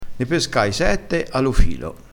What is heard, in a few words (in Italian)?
"a lo filo", Crespinese